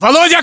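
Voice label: "volodya", battlechatter, Russian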